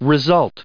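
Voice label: "result", American English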